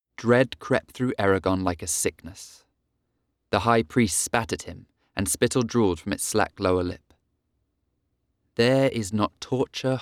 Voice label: Audiobook compilation